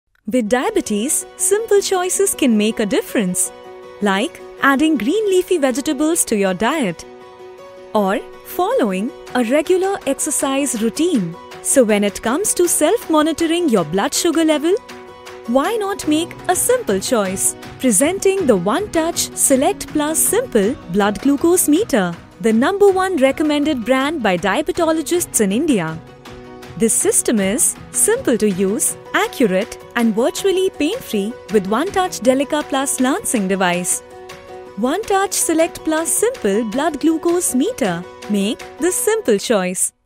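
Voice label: Commercial sample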